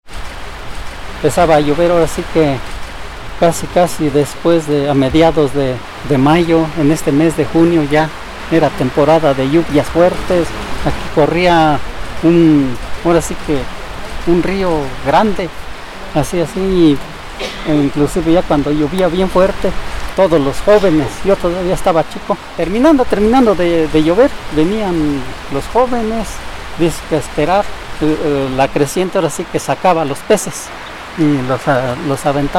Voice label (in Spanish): testimonio; Amanalco